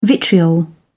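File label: "vitriol", English, British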